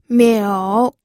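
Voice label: "miu", third tone, pinyin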